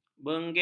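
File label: "benggil", Malay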